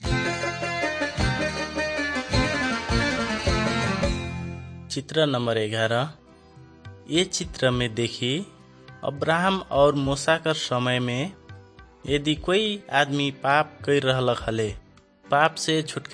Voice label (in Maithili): good news maithili, nt drama